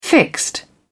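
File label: "fixed", British English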